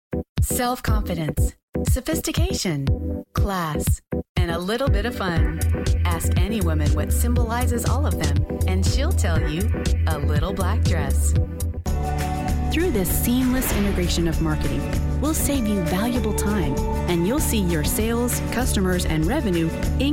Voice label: Explainer Female